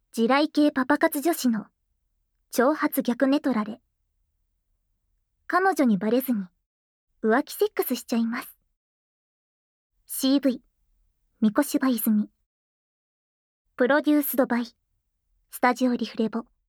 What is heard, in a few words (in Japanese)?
タイトルコール, ASMR